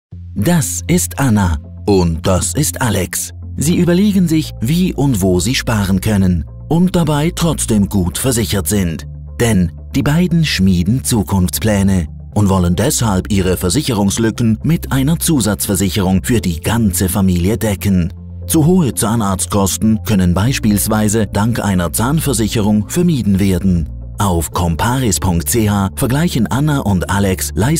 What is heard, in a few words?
german male corporate